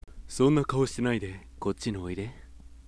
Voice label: sample sweet low, voice